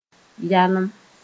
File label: "yalem", Moore